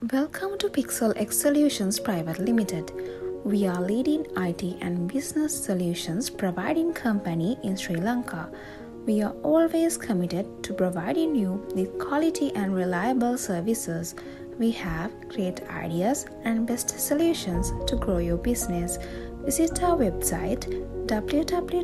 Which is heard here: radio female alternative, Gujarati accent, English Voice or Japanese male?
English Voice